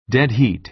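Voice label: "dead heat", American English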